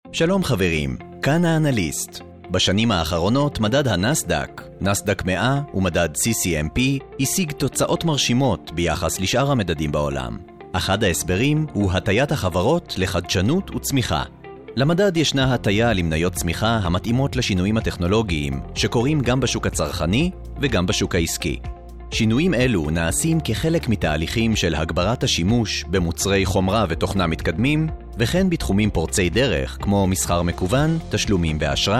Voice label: hebrew narration